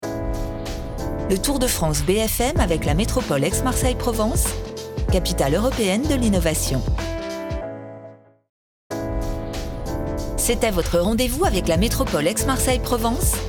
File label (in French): Billboard; Tour de France; BFM TV